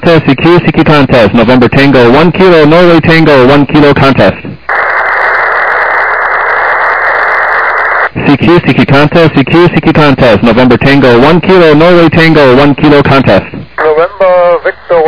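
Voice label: upper sideband 28MHz; ARRL 10M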